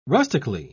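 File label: "rustically", British English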